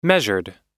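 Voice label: "measured", American English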